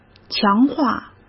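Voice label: "强化", Mandarin Chinese; hsk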